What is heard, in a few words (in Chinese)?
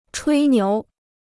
"吹牛", Chinese